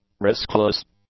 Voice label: "riskless", English; adjectives